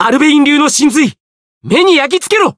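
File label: variable chant, Japanese; cless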